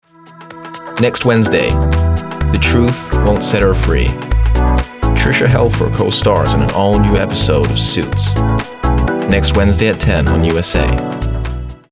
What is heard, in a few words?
american